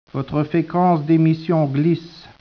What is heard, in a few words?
speech, French